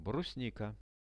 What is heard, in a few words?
brusnika, Russian; брусника